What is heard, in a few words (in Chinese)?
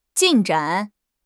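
"进展", Chinese; HSK6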